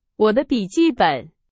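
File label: Chinese, example sentence; hsk2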